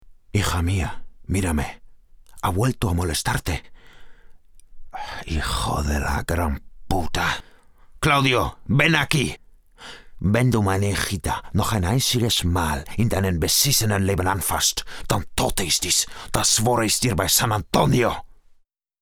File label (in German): Spanisch Und Akzent; San Antonio